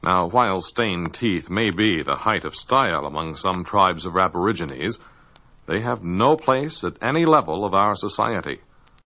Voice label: Stained Teeth Aborigines, male spoken word; MALE MISC